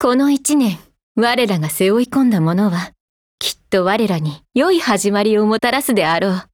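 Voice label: NEW YEAR, Japanese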